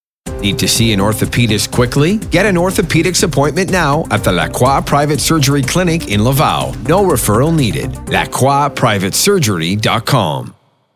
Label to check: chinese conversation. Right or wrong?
Wrong